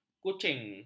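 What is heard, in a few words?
"kucing", Malay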